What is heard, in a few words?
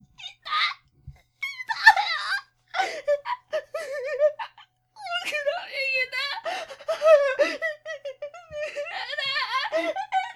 boy